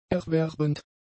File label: "erwerbend", German; adjectives